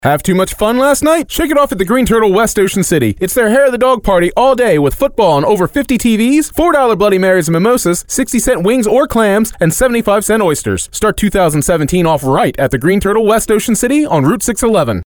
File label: Dry, voiceovers; Dry Vocal Track; Greene Turtle West New Year's Day 2017; radio